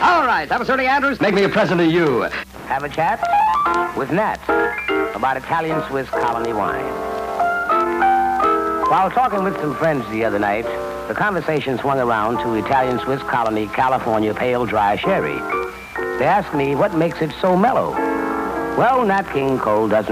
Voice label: commercials; 1954; WJW; Moondog